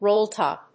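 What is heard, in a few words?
"roll-top", English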